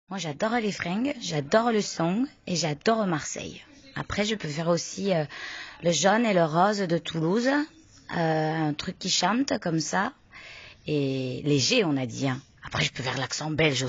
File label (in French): Accent du sud